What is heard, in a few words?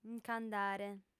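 "nc'andare" Sardinian, female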